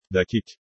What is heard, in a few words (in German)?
"dakik", Tuerkisch; Interkultur; LearningApp